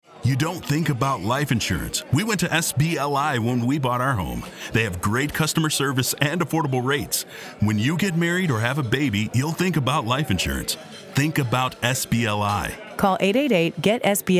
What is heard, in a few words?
Male RADIO; SBLI; Savings Bank Mutual Life Insurance Company